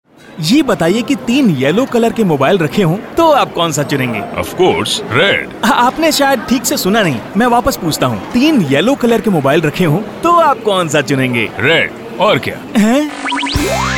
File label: mobile teaser, male voice; RED MOBILE TEASER 2 (REVISED)